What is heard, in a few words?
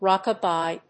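"Rockabye", English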